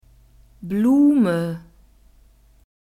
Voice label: "Blume", German; nouns gender feminine

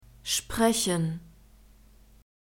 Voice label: "sprechen", German

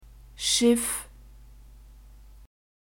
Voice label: "schiff", German; nouns gender feminine

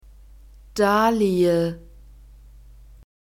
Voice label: "Dahlie", German; nouns gender feminine

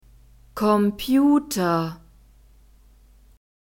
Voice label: "Computer", German

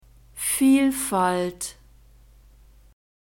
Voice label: "vielfalt", German; nouns gender feminine

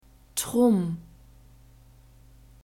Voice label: "trumm", German

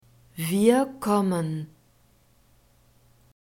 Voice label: "wir kommen", German

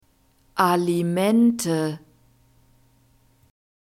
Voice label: "Alimente", German

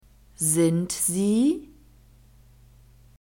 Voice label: "sind sie", German

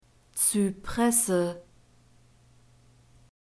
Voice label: "zypresse", German; nouns gender feminine

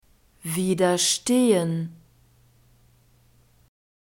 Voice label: "widerstehen", German

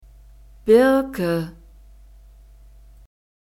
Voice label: "Birke", German; nouns gender feminine

